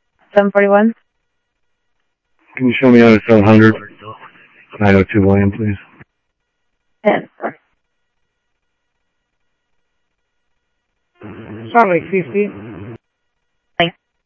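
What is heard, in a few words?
Sheriff East County, scanner audio trunk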